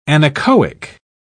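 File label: "anechoic", British English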